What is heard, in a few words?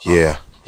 "yeah" smooth, voice; ooh ahh